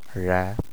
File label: "zha", Tamil